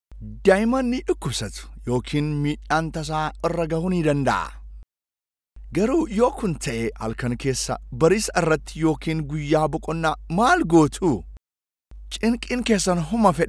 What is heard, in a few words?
Oromo; After Hours